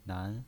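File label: "nan", pinyin voice, Mandarin Chinese, second tone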